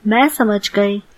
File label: understand, hindi